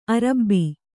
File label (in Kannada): "ಅರಬ್ಬಿ", Kannada